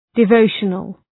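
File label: "devotional", English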